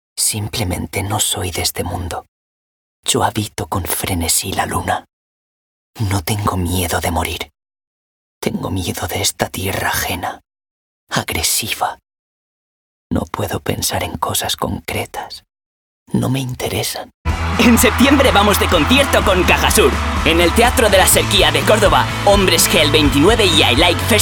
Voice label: voz masculina juvenil

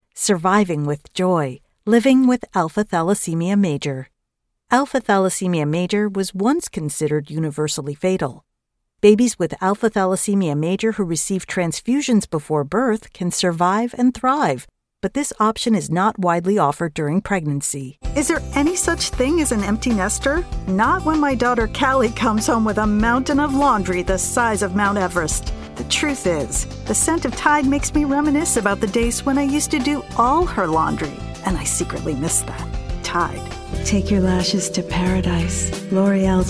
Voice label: Ingles USA Canada Voz femenina media

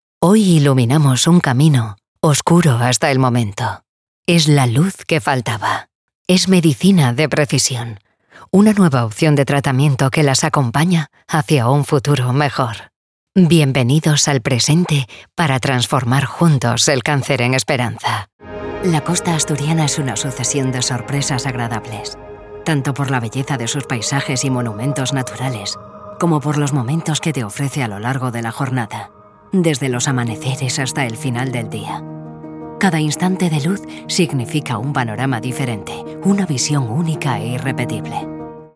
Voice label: voz femenina media

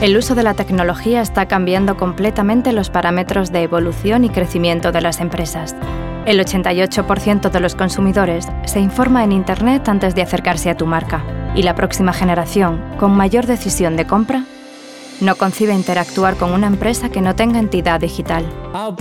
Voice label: voz femenina juvenil

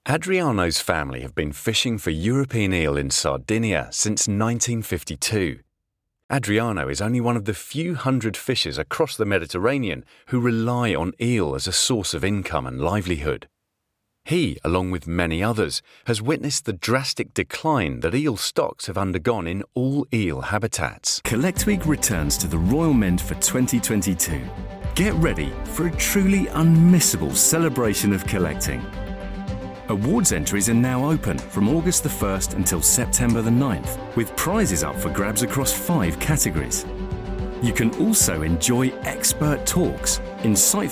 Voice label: Ingles UK Voz masculina media

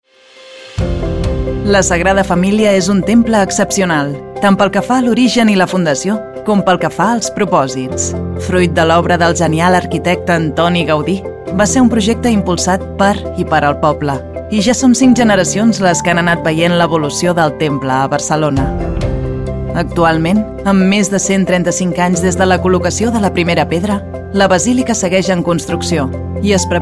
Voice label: Catalan voz femenina media

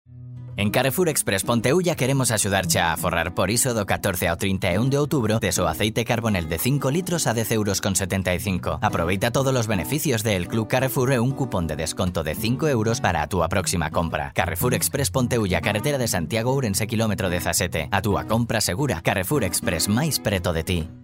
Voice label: voz masculina gallego

